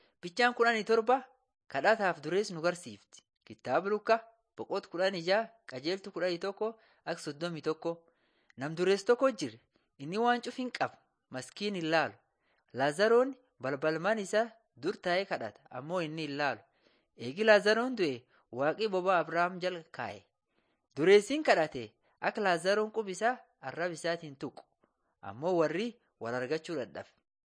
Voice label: borana sakuye; laali chaqasi jiradin 7 jesus lord and saviour

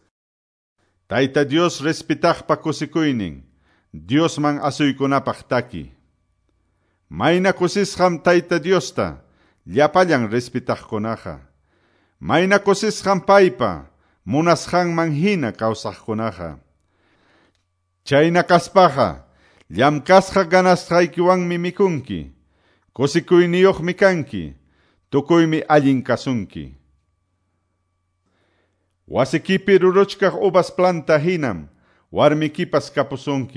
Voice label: salmos, quechua ayacucho; quechua ayacucho pbs non drama

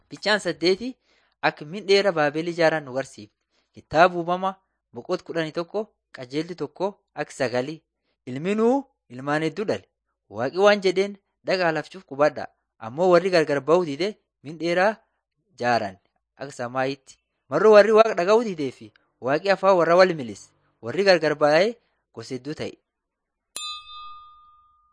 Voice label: borana sakuye

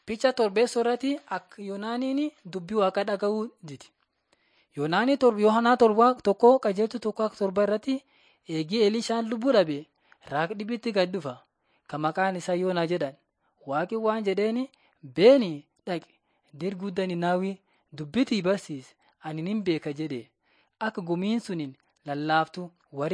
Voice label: borana sakuye; laali chaqasi jiradin 5 jilalacha waaqat; on trial for god

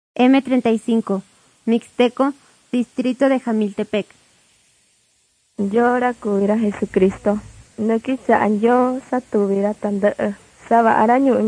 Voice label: mixtec diagnostic spanish mexico

